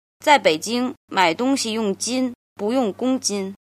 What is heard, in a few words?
"jin", Chinese